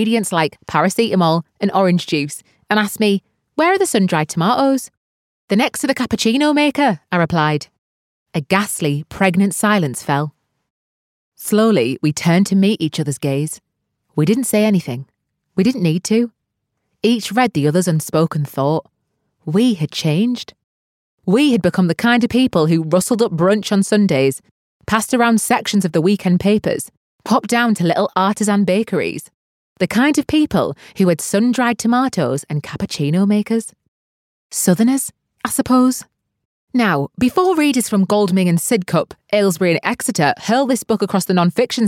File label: northern voice audiobook